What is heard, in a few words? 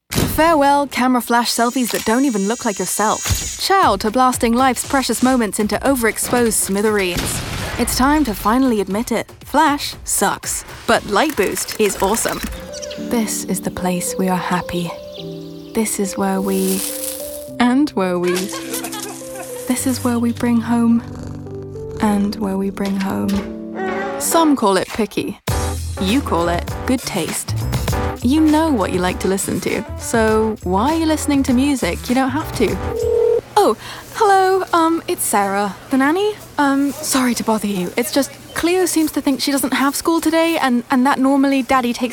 english british commercial